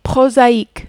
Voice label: "prosaique", French

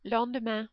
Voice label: "lendemain", French

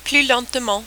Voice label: "plus lentement", French

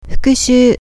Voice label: "fukushuu", Japanese

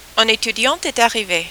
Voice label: rhythm, French

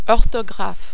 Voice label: "orthographe", French

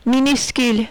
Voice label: "minuscule", French

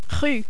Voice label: "rue", French

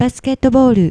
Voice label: "basuke", Japanese

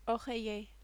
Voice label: "oreiller", French

